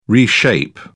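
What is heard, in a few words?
"reshape", British English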